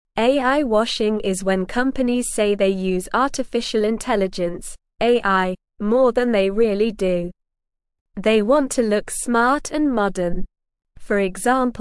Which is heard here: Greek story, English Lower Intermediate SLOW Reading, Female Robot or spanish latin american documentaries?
English Lower Intermediate SLOW Reading